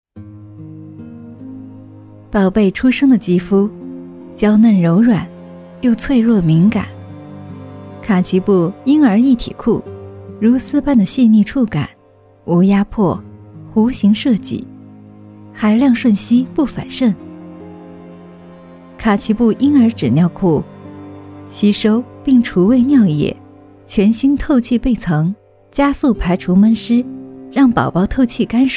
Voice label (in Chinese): 女 解说 纸尿裤